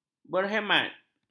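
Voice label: "berhemat", Malay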